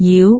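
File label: "jiu2", Cantonese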